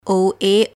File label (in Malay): "oa" huruf